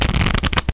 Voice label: TEASE